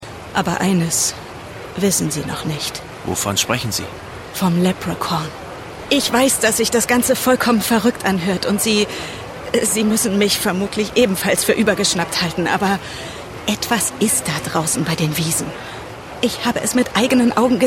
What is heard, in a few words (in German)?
HOERSPIEL; ProfessorVanDusen; Emily